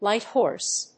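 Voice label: "light horse", English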